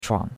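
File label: "chuang3", Chinese; Cours-de-Chinois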